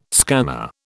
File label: "scanner", British